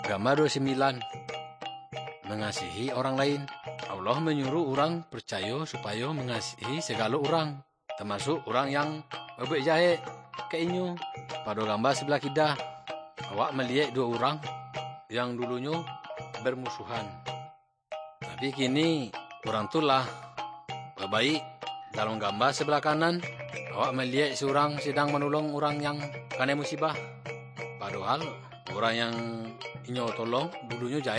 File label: good news minangkabau muko muko; kaba ilo